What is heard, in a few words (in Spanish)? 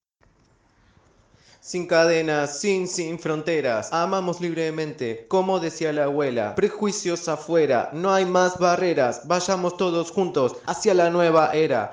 voz rap